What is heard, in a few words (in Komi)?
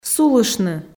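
"сулыштны", Komi, female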